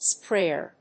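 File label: "sprayer", English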